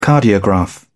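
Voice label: "cardiograph", British English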